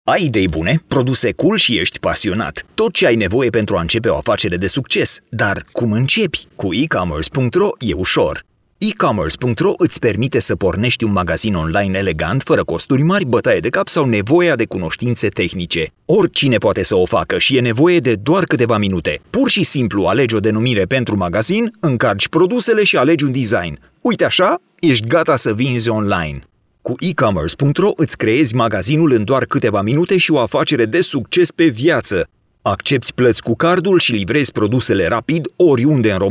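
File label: Romanian male Internet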